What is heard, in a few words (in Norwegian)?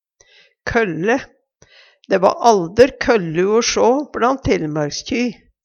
kølle, Numedalsmål